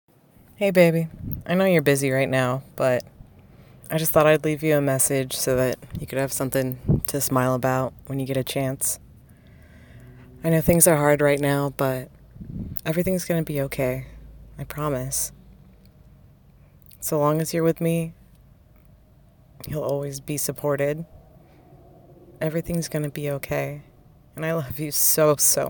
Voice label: Voicemail